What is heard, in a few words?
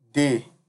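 "dey", Nigerian Pidgin, male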